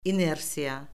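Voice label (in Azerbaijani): "inersiya", Azerbaijani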